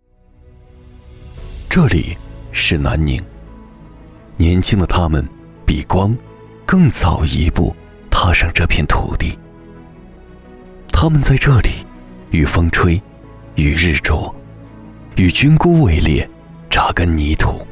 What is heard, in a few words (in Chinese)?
走心旁白; 南宁